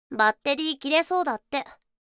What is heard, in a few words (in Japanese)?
バッテリー切れそうだって, システムボイス; Windows向けシステムボイス